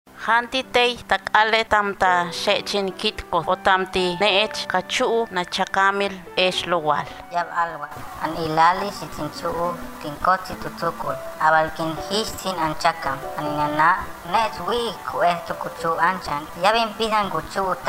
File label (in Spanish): Lengua Tenek; FI Tantoyuca; Violencia Obstetrica